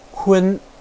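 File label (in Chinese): "kun", Chinese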